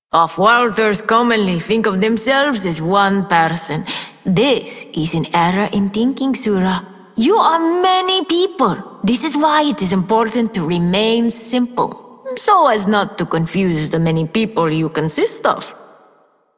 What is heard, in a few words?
Female Generic, Female, en; Dialog; Warframe; Solaris Venus